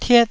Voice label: "tiet1", taiwanese Syllables